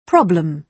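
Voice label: "problem", British English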